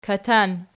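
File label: "katan" nominative, Archi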